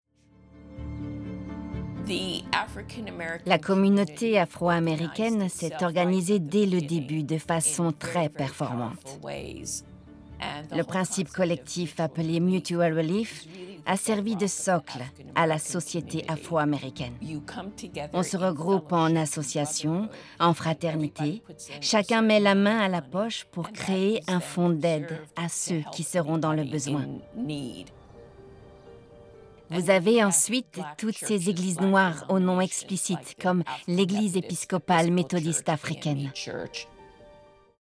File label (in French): Histoire Enquete voice over